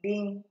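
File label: "bin", Nigerian Pidgin, female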